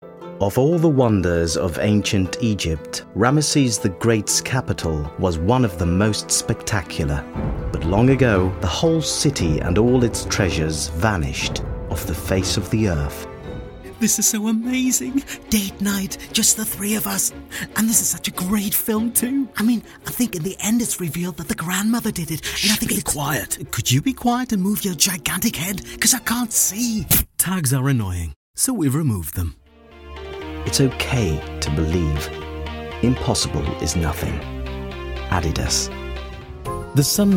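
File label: British English General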